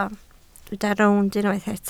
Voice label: "rownd", Welsh

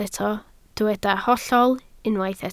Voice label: "hollol", Welsh

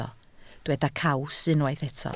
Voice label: "caws", Welsh

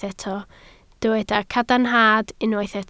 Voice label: "cadarnhad", Welsh

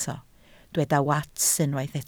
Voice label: "wats", Welsh

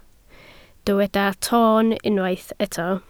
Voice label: "toon", Welsh

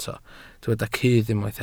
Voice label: "cudd", Welsh

